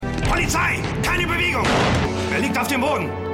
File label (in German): Polizist, Stimmproben